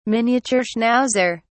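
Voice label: "miniature schnauzer", British English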